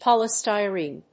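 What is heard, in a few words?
"polystyrene", English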